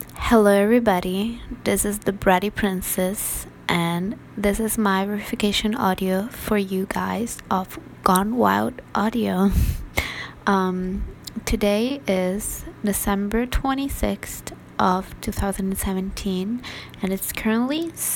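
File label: Female verification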